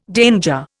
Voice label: "danger", Indian